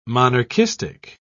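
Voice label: "monarchistic", British English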